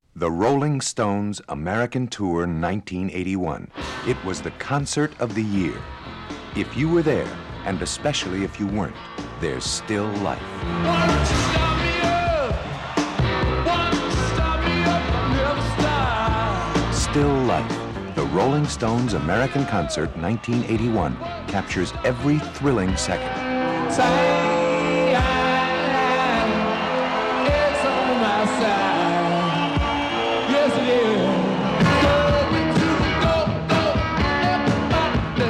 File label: Radio Commercials